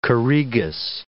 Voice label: "choregus", English